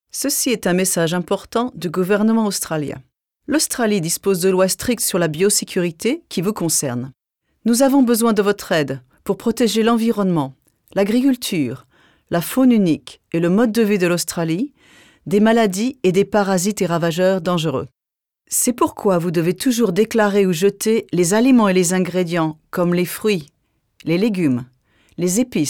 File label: incoming passenger announcement french; Passenger announcement - French 2021; The Department of Agriculture, Fisheries and Forestry; aircraft